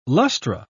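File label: "lustra", British English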